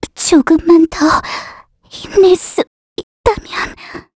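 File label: Vox Dead, korean; Estelle; kingsraid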